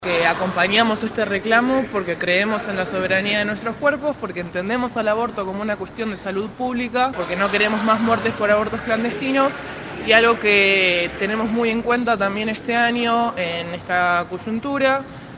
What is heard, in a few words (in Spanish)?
voces varias; aborto